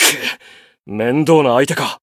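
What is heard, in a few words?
Voice encount fear Japanese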